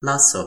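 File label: "laso", Toki Pona; linku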